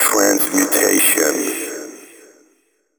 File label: techno male 140; Vocals